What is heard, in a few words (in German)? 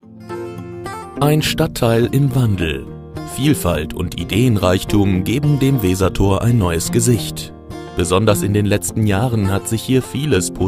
Imagefilm; Kassel Weser Tor